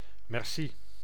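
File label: "merci", Dutch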